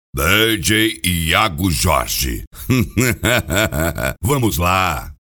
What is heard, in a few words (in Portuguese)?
vinheta voz locutor